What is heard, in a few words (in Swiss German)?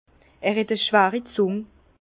"zung", Bas Rhin; vin